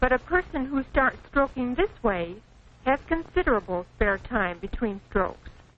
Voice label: between strokes, Quirky Female Typing Instructor; VOCALS and SPOKEN WORD

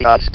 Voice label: "DUST", votrax; VIDEO GAMES

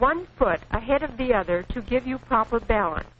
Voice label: proper balance, Quirky Female Typing Instructor; VOCALS and SPOKEN WORD

